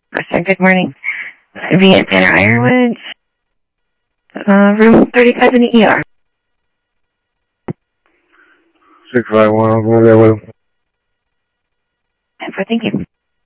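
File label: Sheriff East County, scanner audio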